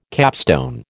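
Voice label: "capstone", American English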